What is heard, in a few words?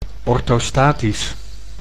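"orthostatisch", Dutch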